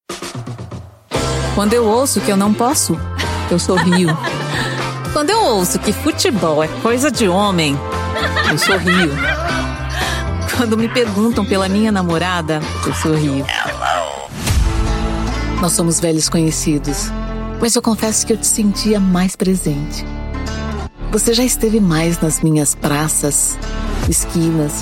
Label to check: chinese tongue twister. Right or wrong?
Wrong